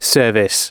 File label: announcements, male